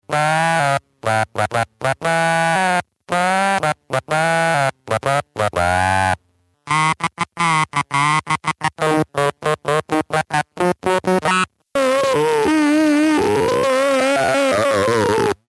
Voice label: synvoice; droid3